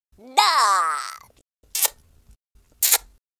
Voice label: happy, Russki